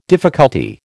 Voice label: "difficulty", American

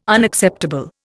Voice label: "Unacceptable", Indian

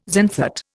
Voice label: "xanthate", Indian

